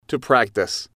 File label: "to practice", English